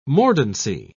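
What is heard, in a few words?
"mordancy", British English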